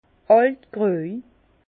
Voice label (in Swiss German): "altgroj", Bas Rhin; vin; vieux rosé